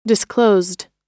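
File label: "disclosed", American English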